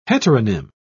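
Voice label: "heteronym", English, British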